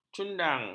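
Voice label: "cundang", Malay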